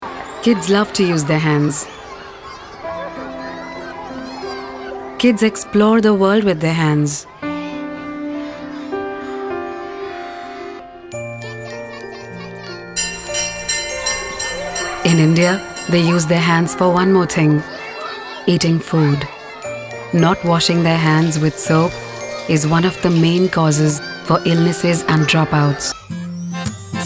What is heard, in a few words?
English Audio; Savlon